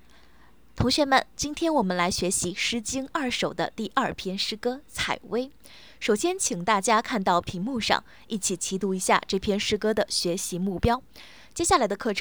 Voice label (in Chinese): 教学讲解